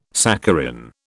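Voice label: "saccharin", British English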